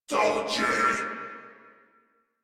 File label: Surprised Crewmen, Battle Barks; Warframe; Corpus Crewmen; Crewman C